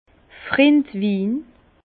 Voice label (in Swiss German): "frindwin", Bas Rhin; vin